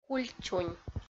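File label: "кульчунь", Komi, female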